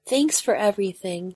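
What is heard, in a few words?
"thanks for everything", English pronunciation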